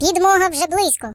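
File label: "Reinforcements", British; Default uk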